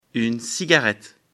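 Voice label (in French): "cigarette", French; afrikalan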